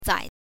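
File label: "zai", Mandarin Chinese, neutral tone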